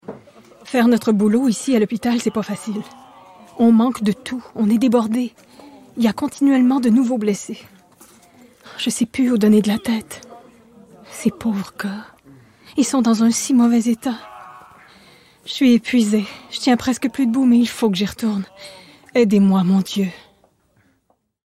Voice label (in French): narration fr normatif qc naturel febrile; la bataille de terre neuve; vulnerable adult